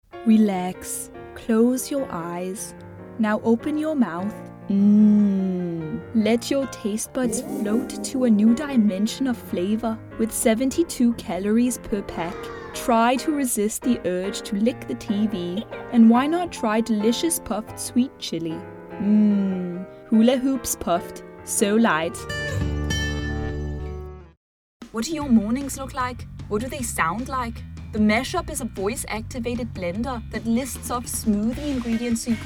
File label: Danish Accent Commercial